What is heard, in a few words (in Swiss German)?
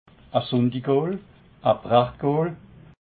"sundigool", alsace